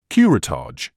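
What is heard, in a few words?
"curettage", British English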